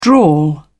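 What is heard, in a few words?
"drawl", British English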